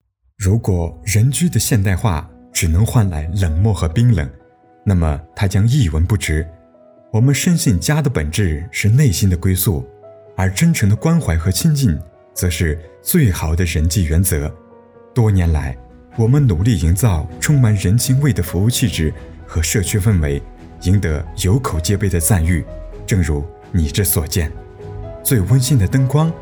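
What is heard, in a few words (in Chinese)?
男【广告】地产楼宇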